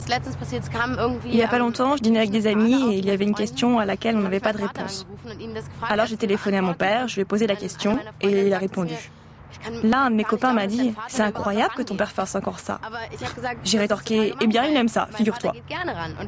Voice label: voice over voix; Arte